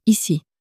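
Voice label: "ici", French, female; flashcards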